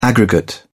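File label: "aggregate", British English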